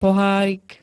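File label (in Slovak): "pohárik", Slovak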